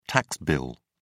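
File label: "tax bill", British English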